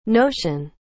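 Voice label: "notion", English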